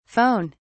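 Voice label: "phon", British English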